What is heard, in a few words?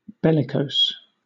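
"bellicose", British English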